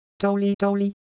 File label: Ewe, ideophones; Motion